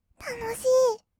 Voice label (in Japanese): "たのしー", kawaii voice; ASMR; 色々なワンショット; 1200 kawaii voice!!